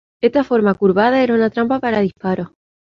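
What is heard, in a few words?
"trampa", Spanish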